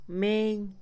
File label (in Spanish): Mixe, Mujer; dinero; Posesión; INALI